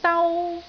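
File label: "dau1", Cantonese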